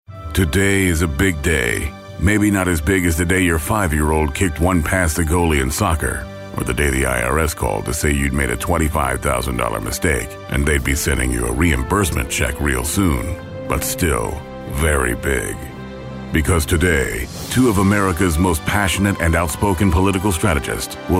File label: Tongue In Cheek